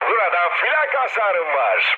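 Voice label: damaged aa, mood med, Turkish; speech; War Thunder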